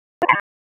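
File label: Police Northwest District Tac, radio; Raleigh; wake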